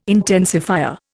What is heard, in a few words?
"intensifier", Indian